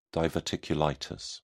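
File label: "diverticulitis", British English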